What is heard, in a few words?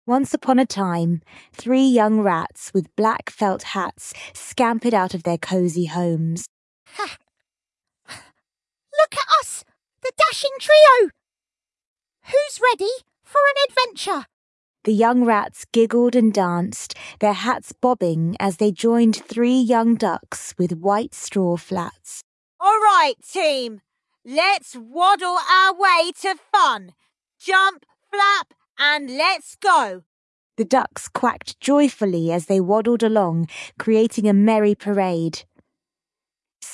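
female British story; Three Young Rats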